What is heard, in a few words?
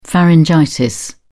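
"pharyngitis", English, British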